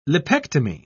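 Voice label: "lipectomy", British English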